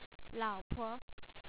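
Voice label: "la3opo", Chinese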